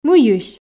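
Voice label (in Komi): "мыйысь", Komi, female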